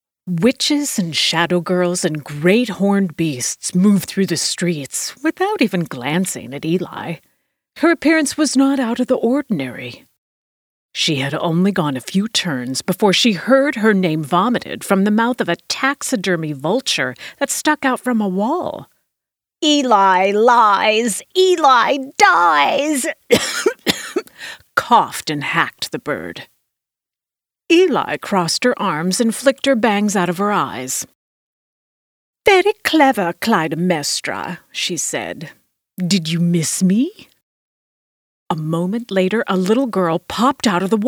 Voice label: ya fantasy british accents